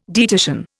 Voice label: "dietitian", Indian

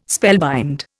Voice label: "spellbind", Indian

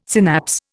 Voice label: "synapse", Indian

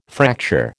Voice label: "fracture", American

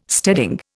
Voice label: "steading", Indian

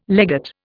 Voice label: "legate", Indian

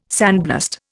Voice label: "sandblast", Indian

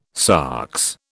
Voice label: "sox", British

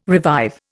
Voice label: "revive", Indian

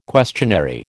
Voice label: "questionary", American

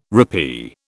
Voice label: "rappee", British

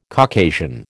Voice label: "Caucasian", American

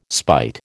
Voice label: "spite", American

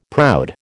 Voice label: "proud", American

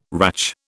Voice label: "ratch", British

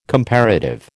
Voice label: "comparative", American

